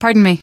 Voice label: pardon me, female; Source Engine; video game; npc